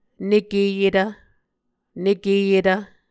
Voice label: "nekeyetv", Muscogee